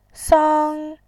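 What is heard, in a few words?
"sang", Mandarin Chinese, first tone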